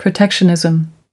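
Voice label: "protectionism", US English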